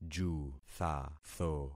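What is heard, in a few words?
"juthatho", concatenated syllables; Affixing experiment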